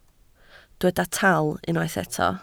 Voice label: "tal", Welsh

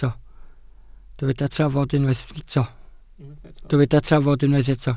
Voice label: "trafod" Welsh

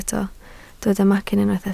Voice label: "macyn", Welsh

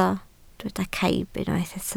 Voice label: "caib", Welsh

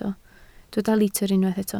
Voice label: "litr", Welsh

